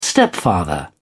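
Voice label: "stepfather", British English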